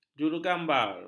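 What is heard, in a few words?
"jurugambar", Malay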